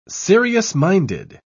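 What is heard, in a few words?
"serious-minded", British English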